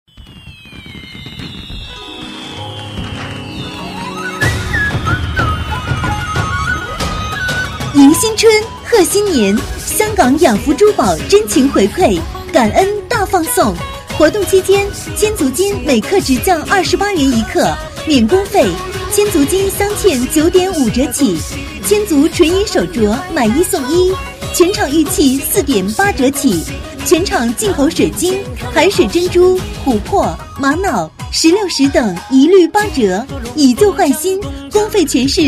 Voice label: 女, 促销, 国语女声; 香港雅福珠宝

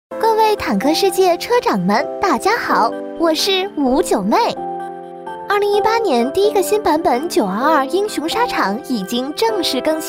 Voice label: 女,游戏解说

